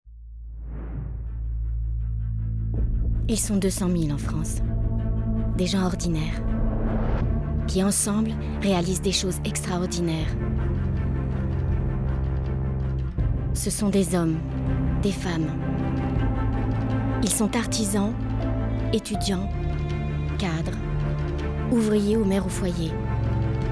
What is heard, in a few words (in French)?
PUBLICITE voix grandes causes; SAPEURS POMPIERS VOLONTAIRES